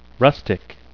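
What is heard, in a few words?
"rustic", English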